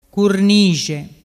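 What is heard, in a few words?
"curnixe", Vernazzese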